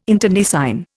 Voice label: "internecine", Indian

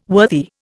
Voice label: "worthy", Indian

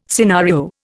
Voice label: "scenario", Indian

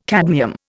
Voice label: "cadmium", Indian

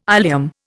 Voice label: "ileum", Indian

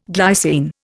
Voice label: "glycine", Indian

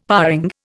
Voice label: "paring", Indian; Dictionary

